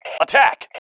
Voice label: ATTACK, MALE